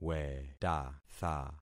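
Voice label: "wetatha", concatenated syllables; Affixing experiment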